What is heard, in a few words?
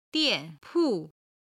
"dian4 pu4", Chinese